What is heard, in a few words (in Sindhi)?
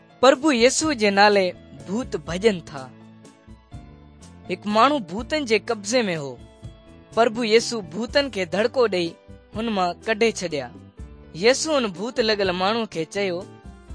good news sindhi dukslinu, drama; bible; pakistan; sindhi pakistan nt drama